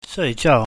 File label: "shui4 jiao4", mandarin